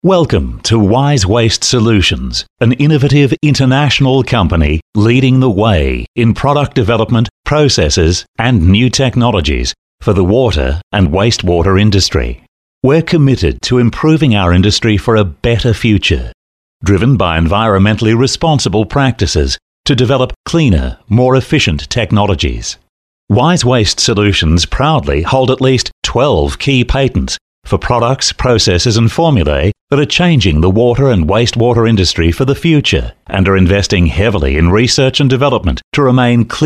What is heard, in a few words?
male talent corporate